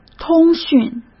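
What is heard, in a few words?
"通讯", Mandarin Chinese; chinese-flashcards; hsk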